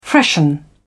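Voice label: "freshen", British English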